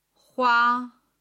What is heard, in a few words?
"hua1", chinese